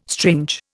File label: "strange", Indian